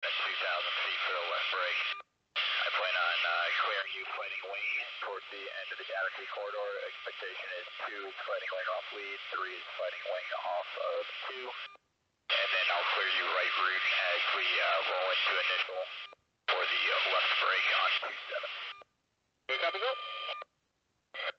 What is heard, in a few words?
USAF Boeing B-52H Stratofortress air 2 air 300.125 MHz